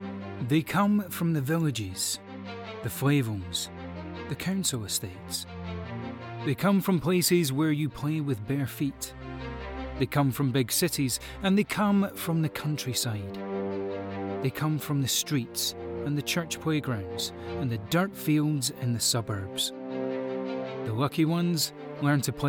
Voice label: Soccer Trailer, voiceover; Football Trailer; Commercial reads